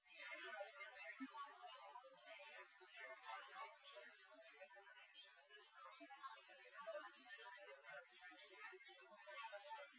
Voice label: female; SiSEC08; SiSEC underdetermined